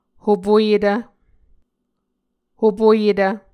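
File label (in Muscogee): "hopoyetv", Muscogee word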